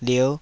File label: "lierh5", Taiwanese